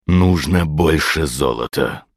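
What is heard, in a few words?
Hero Insufficient Funds Russian, Мужчина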